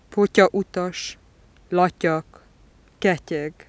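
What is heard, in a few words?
palatal stop voiceless medial, hungarian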